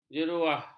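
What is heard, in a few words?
"jeruah", Malay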